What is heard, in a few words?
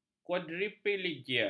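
"kuadriplegia", Malay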